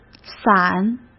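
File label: "伞", Mandarin Chinese; chinese flashcards; hsk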